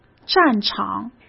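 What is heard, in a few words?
"战场", Mandarin Chinese; hsk; chinese-flashcards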